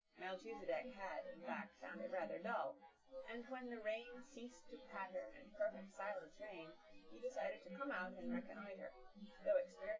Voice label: female, synth conv, 130ms, 5cm; SiSEC10; underdetermined